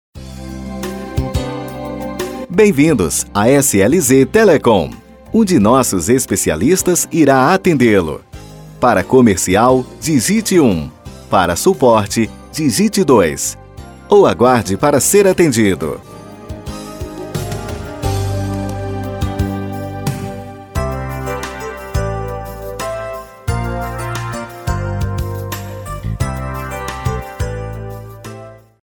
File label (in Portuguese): banco de vozes gravacao de espera telefonica gravacao para pabx central